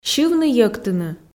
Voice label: "сьывны-йӧктыны", Komi